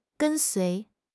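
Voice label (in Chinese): "跟随", Chinese; HSK6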